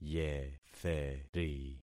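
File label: "yetheri", concatenated syllables; Affixing experiment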